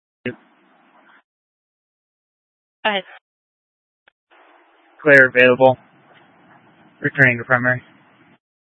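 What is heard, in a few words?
Incident Tactical 1, Scanner audio recording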